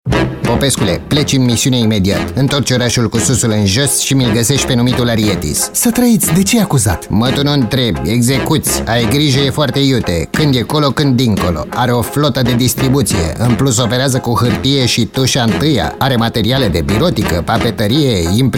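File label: romanian male commercial; voice demos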